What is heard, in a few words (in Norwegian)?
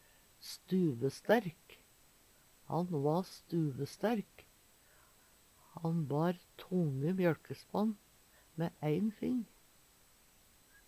stuvesterk, Numedalsmål